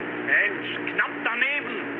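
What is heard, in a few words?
German bomb failure mood med, speech; War Thunder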